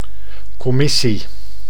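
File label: "commissie", Dutch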